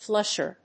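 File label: "flusher", English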